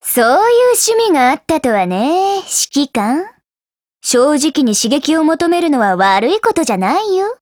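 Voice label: DIALOGUE, Japanese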